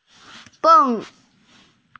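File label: "beng4", Mandarin Chinese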